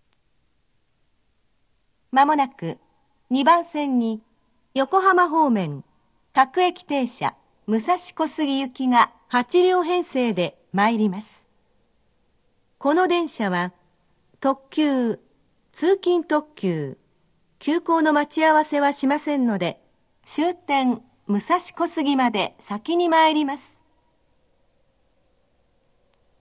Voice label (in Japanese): 接近放送; 各駅停車 特急・通勤特急・急行の待ち合わせなし; 終点まで先に参ります（トーンが異なる）; 新高島駅 まもなく２番線に横浜方面、各駅停車武蔵小杉行が８両編成で参ります。; minatomirai